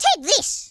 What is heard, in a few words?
"fireball", Irish; speech